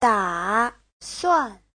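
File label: "打算", Chinese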